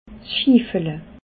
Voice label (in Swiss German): "schiffele", alsace